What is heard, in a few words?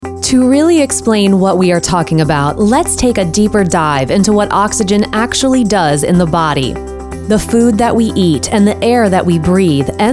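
Narration, voiceover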